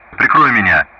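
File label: Speech Sound Russian; DCS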